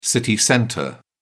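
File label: "city centre", British English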